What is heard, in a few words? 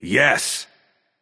emote yes; smite; vulcan